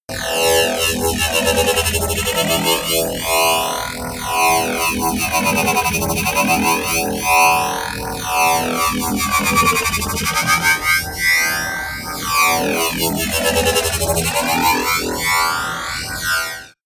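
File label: wobble robot talk, science fiction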